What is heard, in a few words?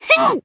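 attack, Japanese; morgana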